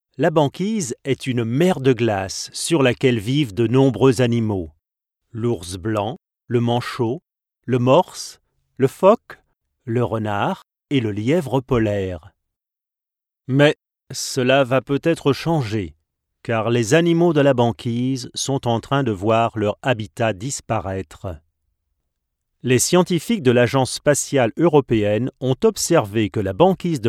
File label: documentary, French Voiceover; Banquise; Dokumentar & Hörspiele